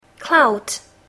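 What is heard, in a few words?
"cloud", English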